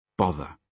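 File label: "bother", British English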